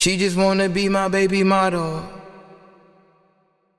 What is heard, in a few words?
Processed Phrase Baby Model 160 BPM, Vocal Phrases; Cymatics; Euphoria Vocal Sample Pack